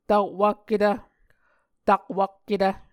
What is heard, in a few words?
"takwakketv", Muscogee; Onvkv Ohhvyayices; A Dictionary of Creek/Muskogee; SIL International